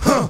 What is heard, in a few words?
"huh", Voice